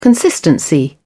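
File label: "consistency", English, British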